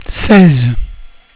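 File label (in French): "seize", French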